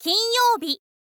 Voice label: "kinyoubi", girl; voice

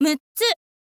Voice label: "muttsu", info girl voice

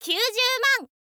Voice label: "kyuuzyuuman", info girl voice

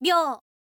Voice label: "byou", girl

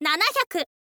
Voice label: "nanahyaku", girl; voice; info girl

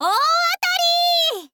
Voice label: "ooatari", girl; voice; info girl

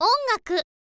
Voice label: "ongaku", info girl voice

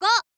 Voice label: "go", girl; voice

